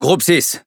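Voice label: French Speech Pilots Bomber; World Of Warships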